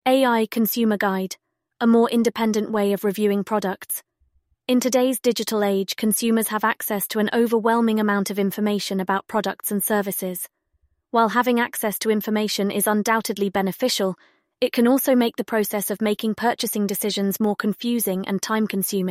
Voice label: British Girl